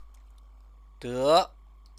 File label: "de", Chinese; 得; get (illness); verb; Chinese lessons